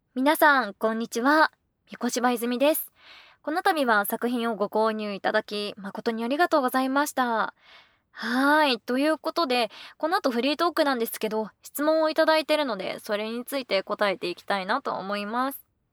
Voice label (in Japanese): フリートーク